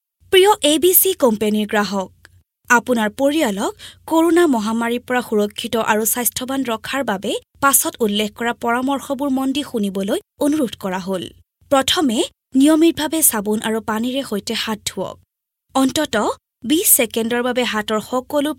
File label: Assamese female